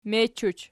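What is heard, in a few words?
"mechuch", nominative, Archi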